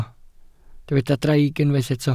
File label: "draig", Welsh